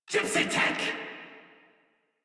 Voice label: Cover Me Crewmen en; Warframe; Corpus Crewmen; Crewman D